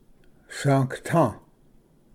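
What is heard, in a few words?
"svgata", Cherokee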